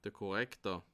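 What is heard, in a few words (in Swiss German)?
"korrektor", Bas Rhin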